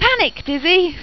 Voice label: panic; excellent dizzy collection